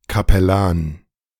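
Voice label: "Kapellan", German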